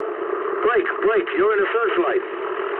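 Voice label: English searchlite mood low; speech; War Thunder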